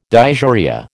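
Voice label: "dysuria", American English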